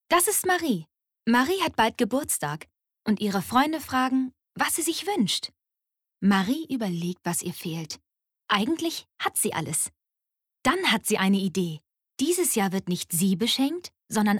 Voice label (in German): Werbung freundlich fröhlich; SOS-Marie